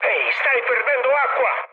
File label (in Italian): damaged water, mood high, speech it; War Thunder